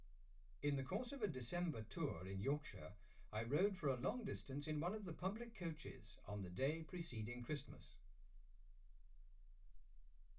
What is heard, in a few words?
male speech english